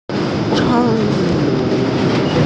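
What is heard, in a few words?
"cao3mei2", Mandarin Chinese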